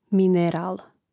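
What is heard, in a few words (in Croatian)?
"mineral" Croatian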